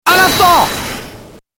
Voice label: hold it, french; Apollo